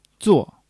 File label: "作", Chinese